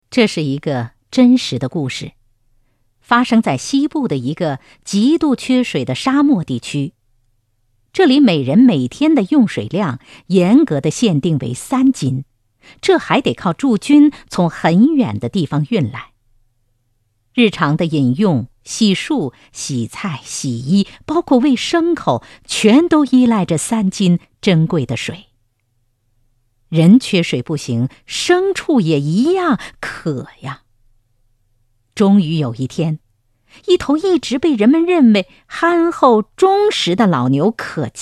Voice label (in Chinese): 专题 抒情配音